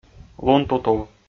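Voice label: "лунтутув", Komi, male; kpv audio dict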